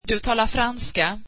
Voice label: you speak French, swedish

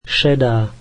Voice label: slovak grey

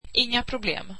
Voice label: swedish no problem

